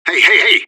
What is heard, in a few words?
radio bot under fire friendly; fbihrt